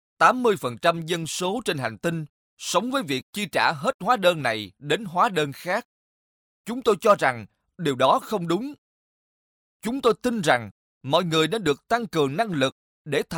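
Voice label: Vietnamese, narration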